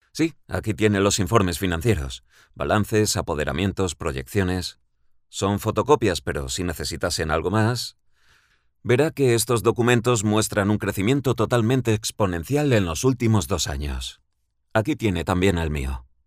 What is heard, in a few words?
locutor spanish voiceover